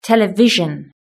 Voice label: "television", British English